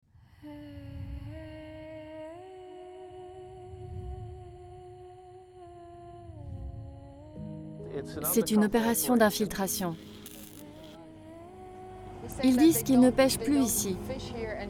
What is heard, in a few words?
Voice Over